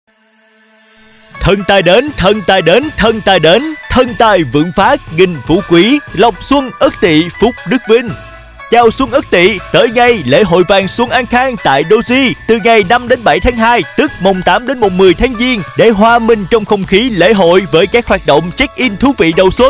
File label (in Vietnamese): GIONG NAM MIEN NAM; TRANG SUC DOJI